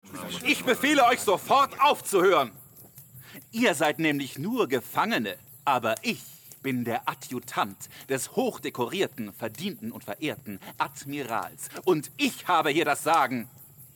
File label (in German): kinderhoerspiel